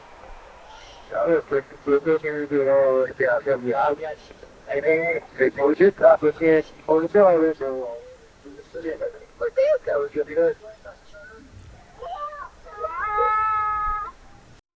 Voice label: elderly woman interview, tv